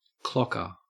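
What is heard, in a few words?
"clocker", Australian English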